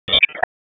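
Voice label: Wake Forest Police Dispatch, p25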